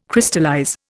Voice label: "Crystallize", Indian